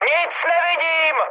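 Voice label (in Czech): Czech searchlight, mood high; speech; War Thunder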